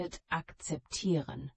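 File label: "akzeptieren", German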